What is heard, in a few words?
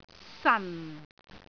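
"san4", Cantonese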